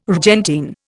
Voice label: "rgentine", Indian